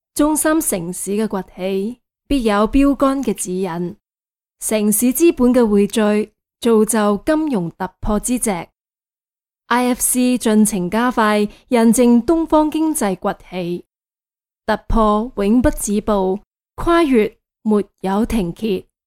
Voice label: Cantonese female, Chinese voice over; Foreign language voice over

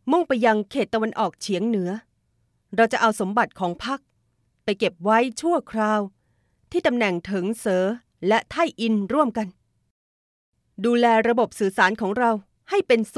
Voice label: Thai female character; Foreign language voice over

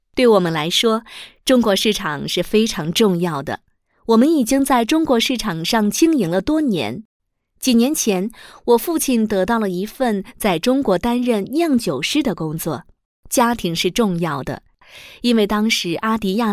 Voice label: Mandarin female; Foreign language voice over

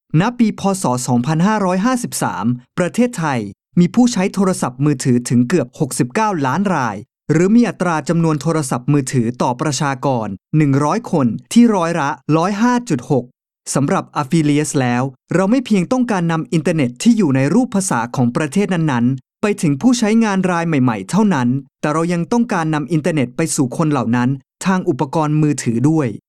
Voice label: Thai male; Foreign language voice over

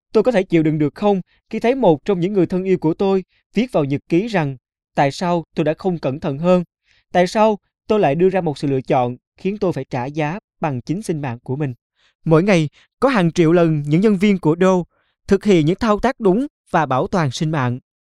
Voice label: Vietnamese male; Foreign language voice over

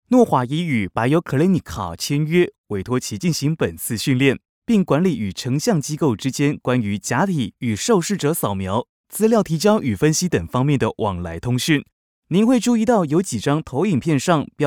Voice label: Taiwanese male; Foreign language voice over

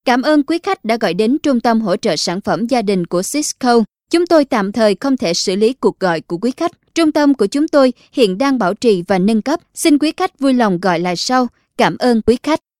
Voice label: Vietnamese female; Foreign language voice over

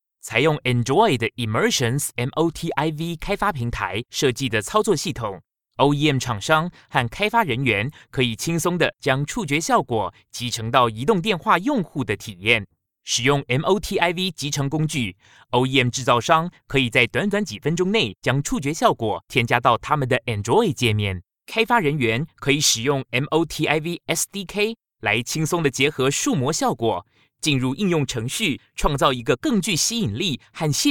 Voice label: Taiwanese male, voice over; Foreign language voice over

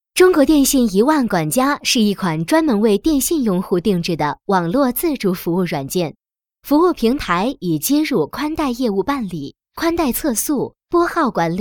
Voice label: Mandarin female, Chinese voice over; Foreign language voice over

